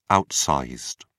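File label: "outsized", British English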